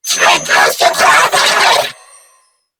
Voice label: Attack Grineer Female; en; New War; Narmer Grineer